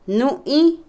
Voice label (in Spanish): tú, usted, Otomí, mujer; Parentesco; INALI